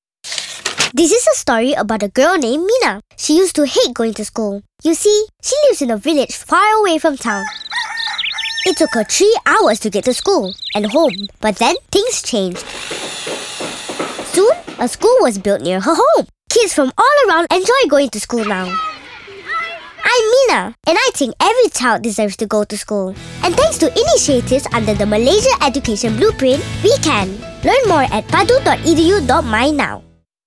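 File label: English; MEB; MALAYSIAN EDUCATION BLUEPRINT CAMPAIGN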